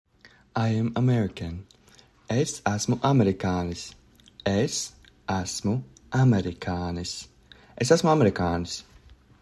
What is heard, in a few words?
I am American, Latvian; the talking latvian phrasebook